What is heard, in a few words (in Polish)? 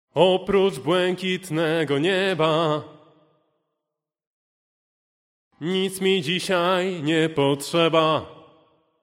glos reverb